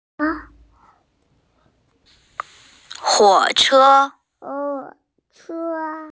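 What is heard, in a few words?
"huǒ chē", Mandarin Chinese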